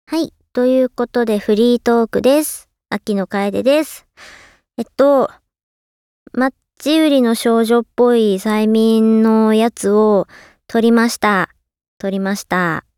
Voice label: フリートーク; 収録語フリートーク

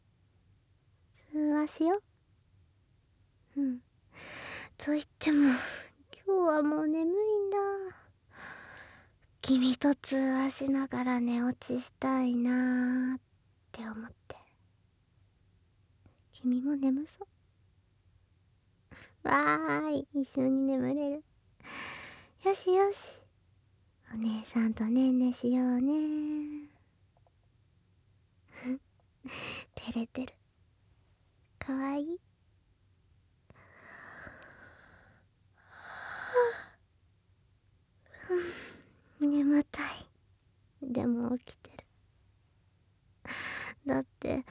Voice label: 添い寝, ASMR; クラスメイトと添い寝落ち通話

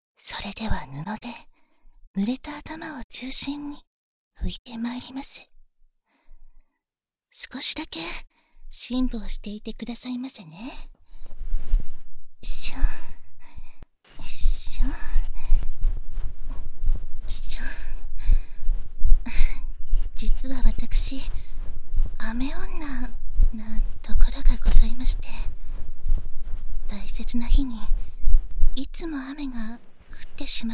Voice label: 雨で塗れた頭をタオルで拭く(バイノーラルマイク 効果音あり大きめ), ASMR; 効果音大差分

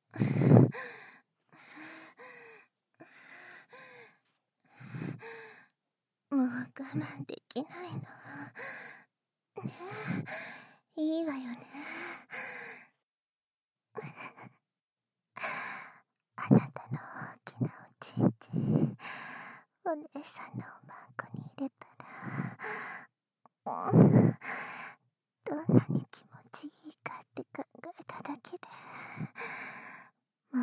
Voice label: 生殖行為 耳を触手で弄られながら（囁き重視編集 効果音あり）, ASMR

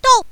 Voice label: "とうっ", 攻撃

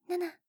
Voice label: 畳み掛けカウント

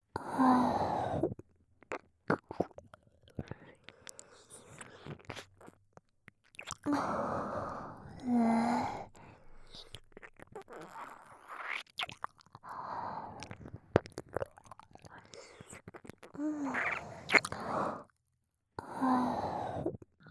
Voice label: 外も奥も欲張り耳舐め(左耳), ASMR

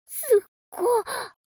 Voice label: mob 女子 台詞

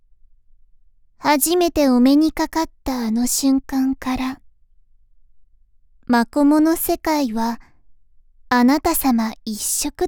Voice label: 自己紹介, ASMR

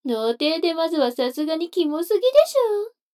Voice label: 女の子 台詞, ASMR

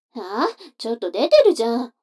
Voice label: 女の子台詞

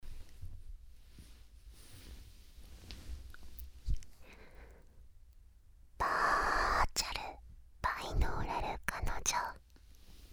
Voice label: フリートーク

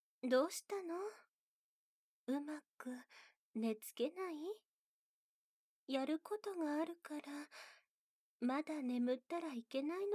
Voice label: 安眠誘導添い寝 BGM効果音なし, ASMR; 愛華ママ

